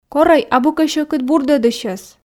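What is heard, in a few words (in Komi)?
корӧй абу кӧ сьӧкыд бурдӧдысьӧс, Komi, female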